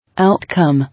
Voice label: "Outcome", English